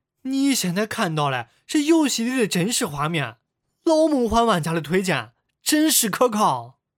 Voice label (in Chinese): 河南方言 男 方言 河南话; 方言配音; 梦幻西游